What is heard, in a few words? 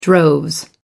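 "droves", British English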